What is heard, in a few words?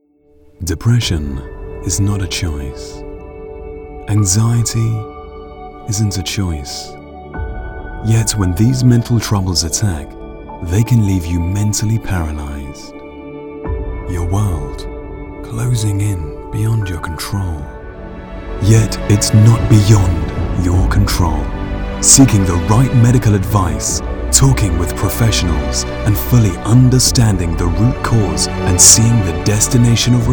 Cinematic Deep Commercial